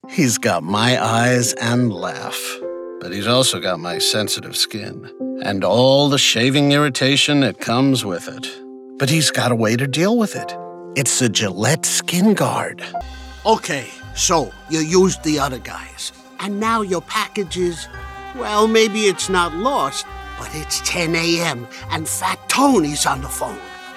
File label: Commercial